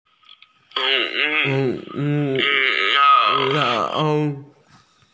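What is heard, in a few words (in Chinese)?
"ju3shou3", Mandarin Chinese, female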